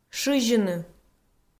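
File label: "шызьыны", Komi, female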